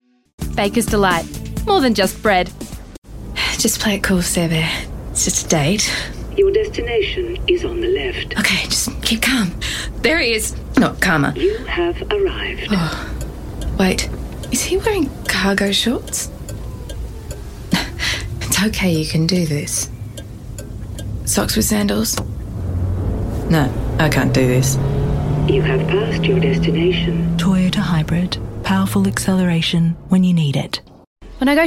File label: Australian Accent